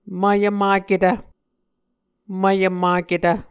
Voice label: "mvyvmaketv", Muscogee